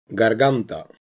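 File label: "garganta", Spanish, castilla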